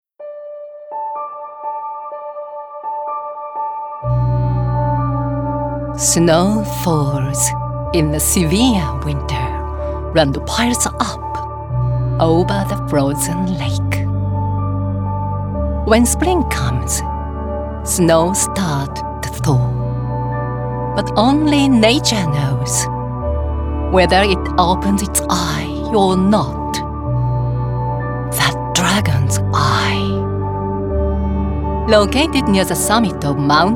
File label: Promo in English